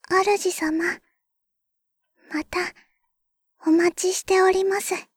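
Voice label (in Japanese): シャットダウン, システムボイス; ベル・カールステッド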